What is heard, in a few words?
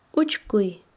Chanka, quechua; hacer hueco